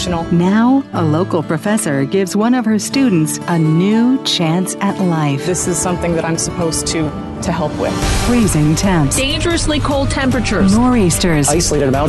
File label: News Promo